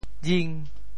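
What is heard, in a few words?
"jing5", Teochew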